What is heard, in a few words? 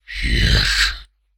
"Yes", human male evil; customnpcs